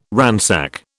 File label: "ransack", British